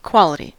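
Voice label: "quality", American English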